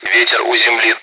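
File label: Speech, Russian, air traffic control; wind; DCS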